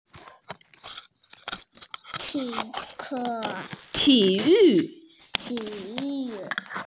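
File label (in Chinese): "ti3yu4", Mandarin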